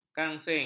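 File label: "kangsin", Malay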